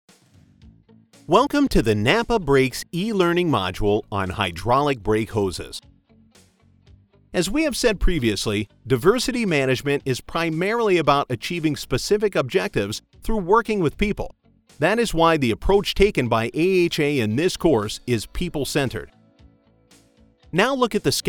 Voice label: eLearning

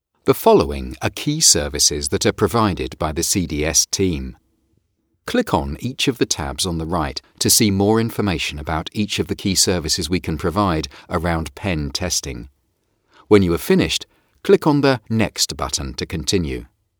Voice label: E Learning

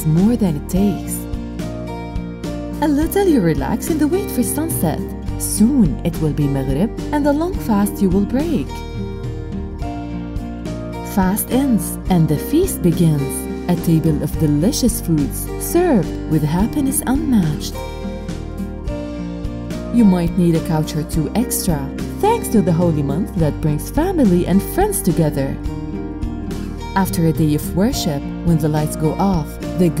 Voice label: Ramadan Promotion; Home R US

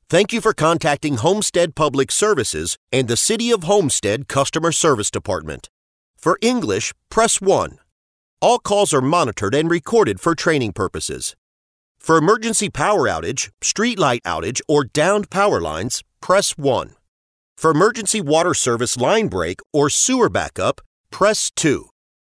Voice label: Phone IVR

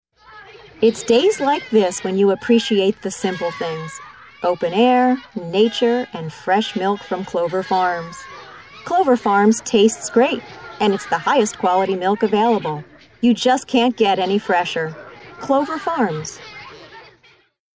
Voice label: Milk Commercial US English

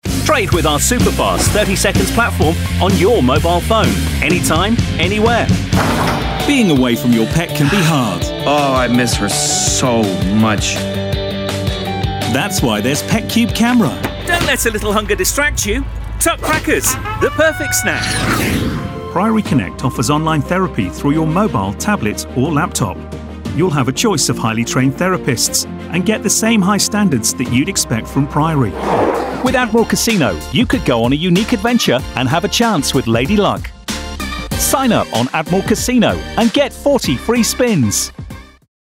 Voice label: British Male Voice Over

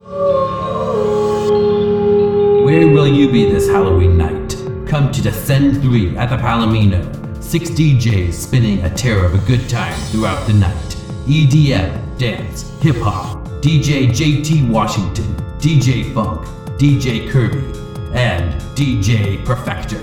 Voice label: Promo; Descend 3

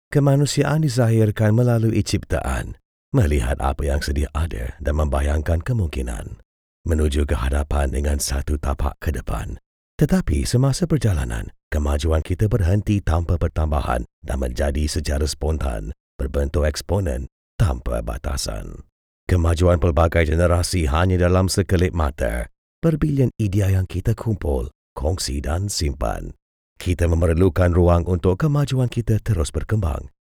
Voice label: Malay Without Music; Seagate

